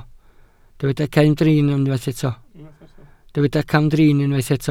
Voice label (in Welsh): "camdrin", Welsh